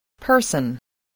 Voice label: "person", voice, English